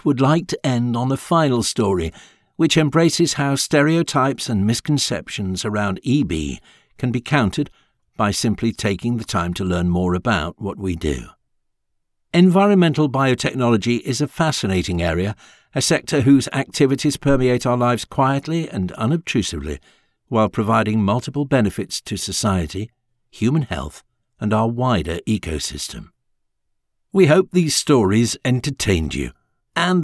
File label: Epilogue, Audiobook